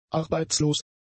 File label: "arbeitslos", German; adjectives